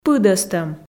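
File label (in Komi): "пыдӧстӧм", Komi, female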